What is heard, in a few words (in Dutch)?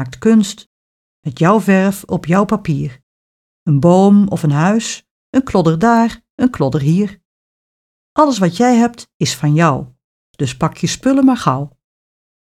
Ik ben een schilder gedicht; taalsprong; kijk kunst